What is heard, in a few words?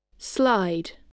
"slide", voice spelling